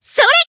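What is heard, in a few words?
attack, Japanese; sincere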